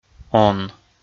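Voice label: "он", Komi, male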